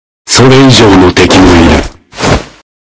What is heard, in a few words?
voice, dubbing